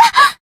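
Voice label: Voice damaged, Japanese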